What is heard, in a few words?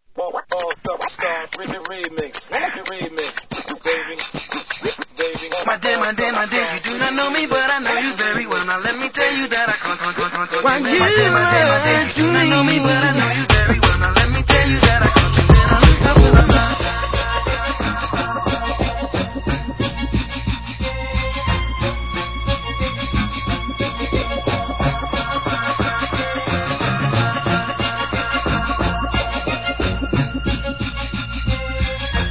stutter